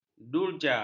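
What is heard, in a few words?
"durja", Malay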